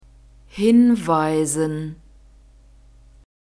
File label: "hinweisen", German